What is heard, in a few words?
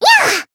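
Voice attack Japanese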